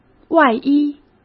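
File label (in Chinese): "外衣", Mandarin Chinese; hsk; chinese-flashcards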